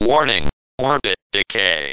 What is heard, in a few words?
Space Shuttle Warning Orbit Decay